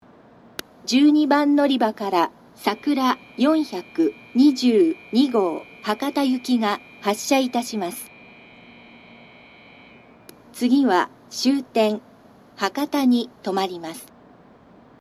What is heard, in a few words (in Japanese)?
12番のりば発車放送, 新幹線ホーム; 新鳥栖; さくら422号 博多行き